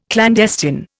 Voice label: "clandestine", Indian